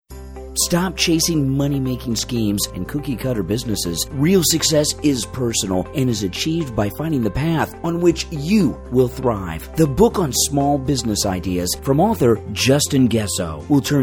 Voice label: Radio Announcment